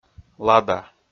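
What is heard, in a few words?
"лада", Komi, male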